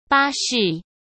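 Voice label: "巴士", Mandarin Chinese; chinese-flashcards; hsk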